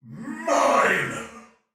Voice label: Attack Normal Soldier Male, Battle; Sinecure

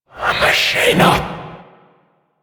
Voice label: Possessed Vocal Enemy Spotted; Enemies; Warframe; Priest Quest; Possessed Red Veil

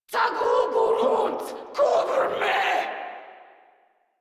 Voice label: Cover Me Grineer Female; Warframe

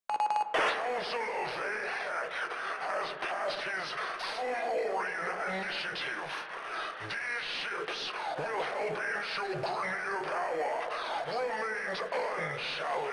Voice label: Announcements, Radio Interceptions

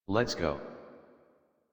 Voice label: Adult, en, Dialog; Dorm Cave; Warframe; Duviri Paradox Quest

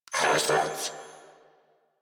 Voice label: Player Spotted, Female; en; Warframe; New War; Narmer Grineer

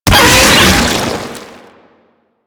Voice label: Pain; Warframe; Proto Infested Brachiolyst

